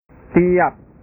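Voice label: "tiap", Indonesian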